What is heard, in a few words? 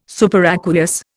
"superaqueous", Indian